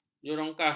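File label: "jerongkah", Malay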